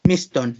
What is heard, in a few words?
"miston", Náhuatl; gato; cat